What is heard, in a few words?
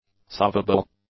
"savable", English; adjectives